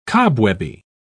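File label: "cobwebby", British English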